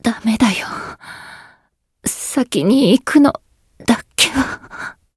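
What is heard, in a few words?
retire, Japanese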